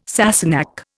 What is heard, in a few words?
"Sassenach", Indian